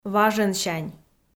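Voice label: "важӧнсянь", Komi, female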